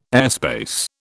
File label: "airspace", British